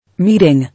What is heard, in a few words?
"Meeting", English